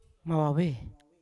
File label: "mavave" fast quick, Yawa